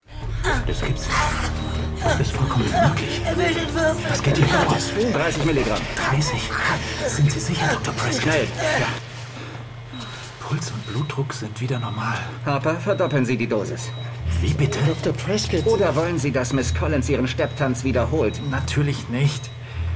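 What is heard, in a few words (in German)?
Hörspiel; John Sinclair; Eisherz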